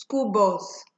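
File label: "school bus", Nigerian Pidgin, female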